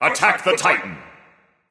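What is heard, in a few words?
vox attack male; smite; agni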